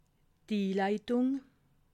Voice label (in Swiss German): "inleitung", alsace